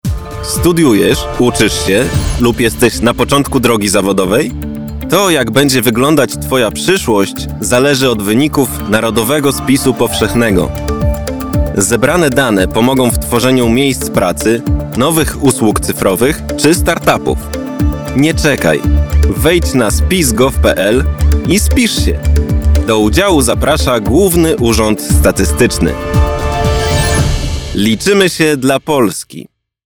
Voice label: radio spot